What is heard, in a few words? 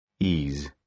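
"ease", British English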